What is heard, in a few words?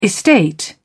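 "estate", British English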